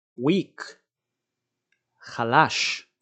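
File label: "weak" English, "חלש" Hebrew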